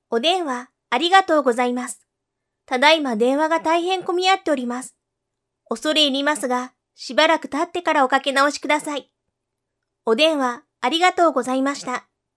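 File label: girl phone, voice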